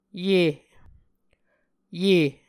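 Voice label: "ye", Muscogee word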